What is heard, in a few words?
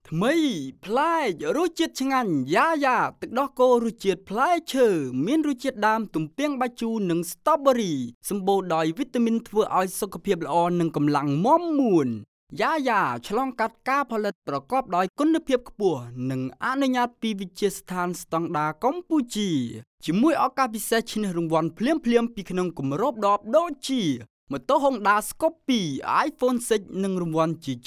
TVC, Khmer